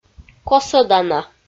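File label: "косӧдана", Komi, female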